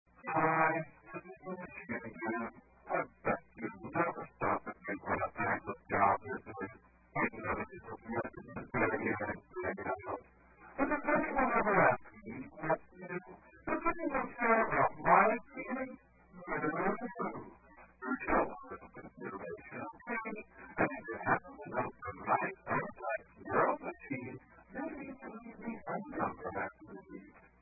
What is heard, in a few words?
Unappreciated Answering Machine, Novelty Answering Machine Greetings; FunnyPhone